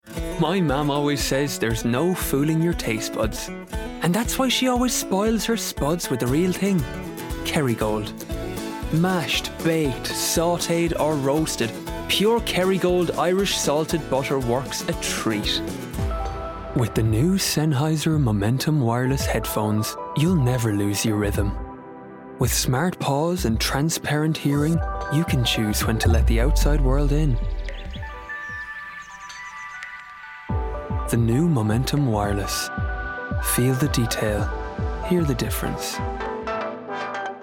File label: Commercials Montage